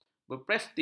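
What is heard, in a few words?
"berprestij", Malay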